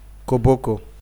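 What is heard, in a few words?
"koboko", Nigerian Pidgin, male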